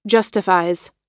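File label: "justifies", US English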